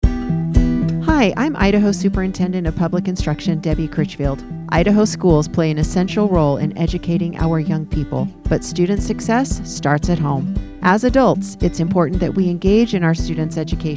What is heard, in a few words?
Radio Spot; attendance toolkit